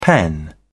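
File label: "pen", English, British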